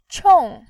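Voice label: "qiong", Mandarin Chinese, fourth tone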